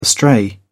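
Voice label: "astray", British English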